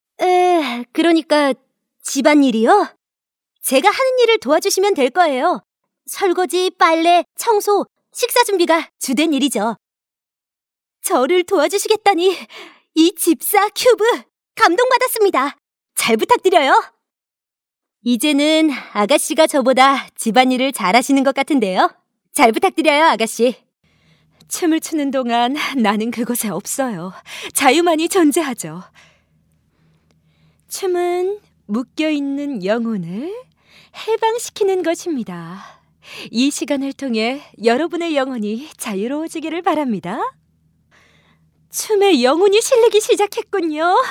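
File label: Korean, female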